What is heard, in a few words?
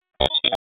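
Raleigh Police Special Operations Tactical, p25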